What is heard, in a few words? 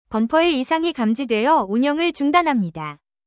failed bumper